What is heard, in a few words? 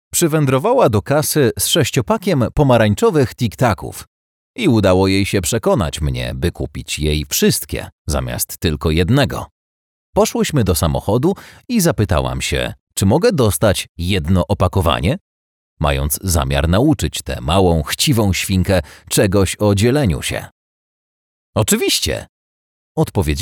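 audiobook Polish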